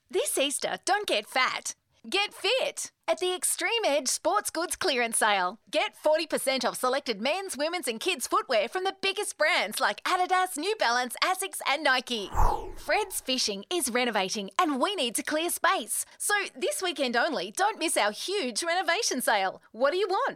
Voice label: Excited